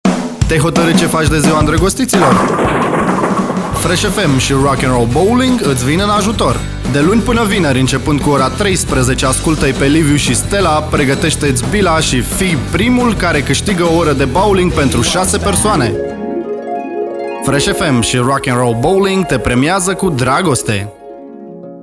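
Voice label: romanian male commercial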